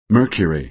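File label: "mercury", American English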